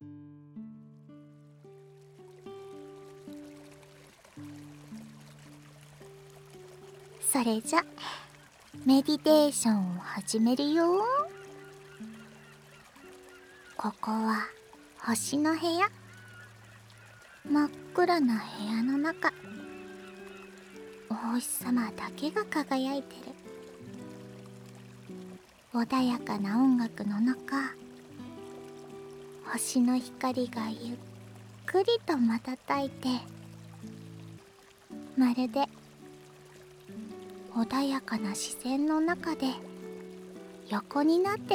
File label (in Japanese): メディテーション（星の部屋）, ASMR